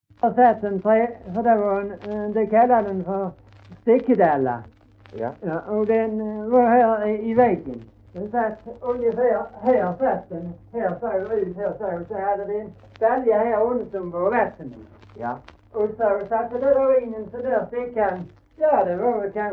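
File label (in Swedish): Hörja Skåne, dialekter; Folkminnen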